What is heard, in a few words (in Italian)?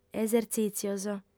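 "esertzìtzios", lingua sarda, female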